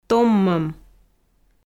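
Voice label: "томмӧм", Komi, female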